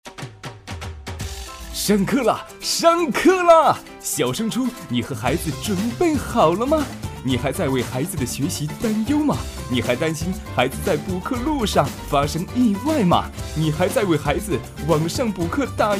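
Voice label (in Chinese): 活力广告教育机构; 学播播教育机构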